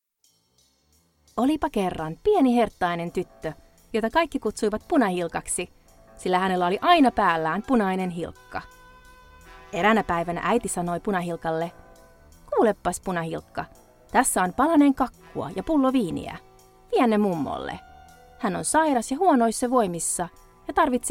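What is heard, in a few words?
Finnish female Narration; voice over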